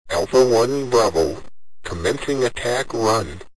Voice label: Chatter